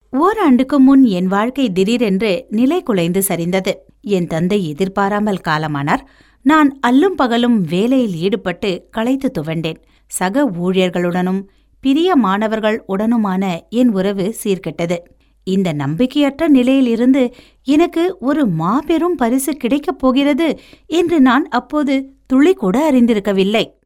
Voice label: Tamil, audiobook

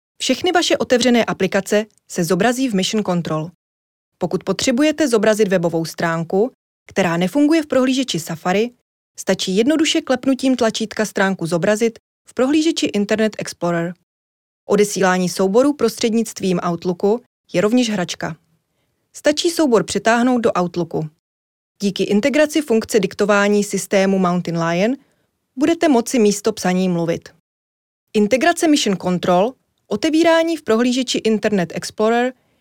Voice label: Czech elearning

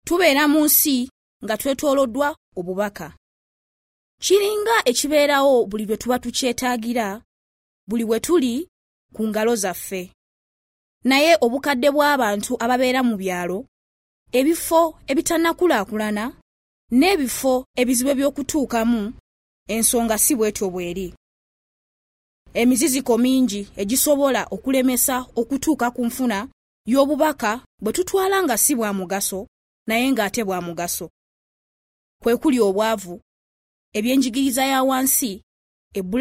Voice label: LUGANDA

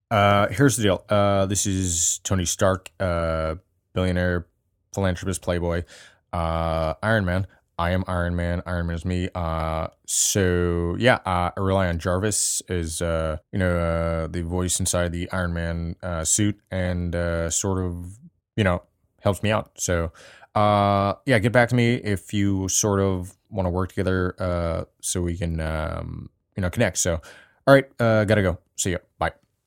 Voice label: Character; Tony Stark

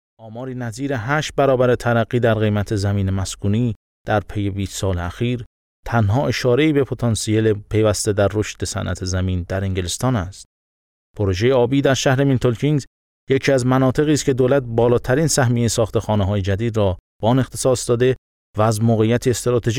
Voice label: Farsi

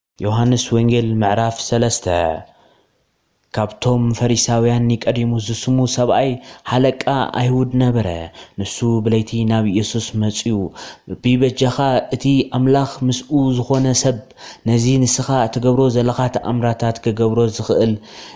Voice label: Tigrinya

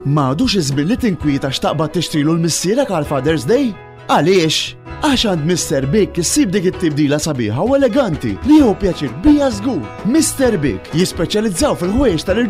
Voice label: MALTESE

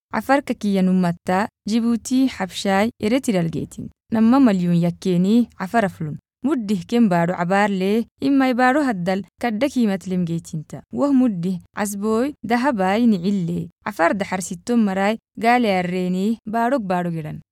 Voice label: Afar

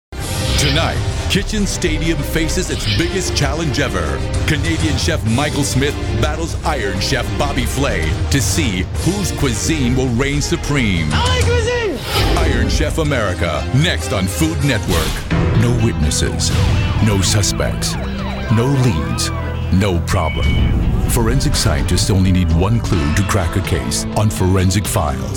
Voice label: US, promo

